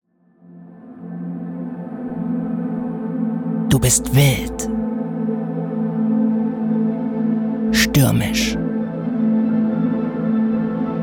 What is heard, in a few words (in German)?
Werbung; Legua